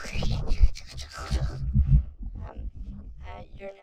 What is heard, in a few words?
Dybbuk Conversation, Vocals Humanoid; Sony Pictures Sound Effects Series Volumes 1-10; Sony Creative Software